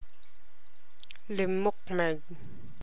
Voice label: Ilocano